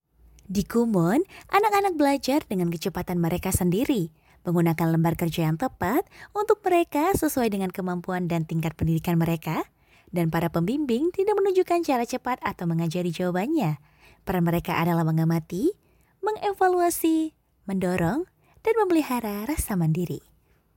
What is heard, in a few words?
INDONESIA CASUAL